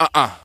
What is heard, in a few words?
"uh uh", Voice; ooh ahh